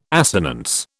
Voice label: "assonance", British

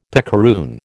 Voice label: "picaroon", American

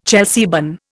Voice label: "Chelsea bun", Indian

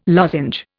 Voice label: "lozenge", Indian

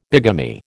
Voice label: "bigamy", American

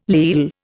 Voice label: "leal", Indian

